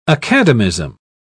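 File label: "academism", English, British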